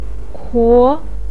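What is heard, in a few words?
"kuo", Mandarin Chinese, second tone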